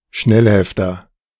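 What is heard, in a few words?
"Schnellhefter", German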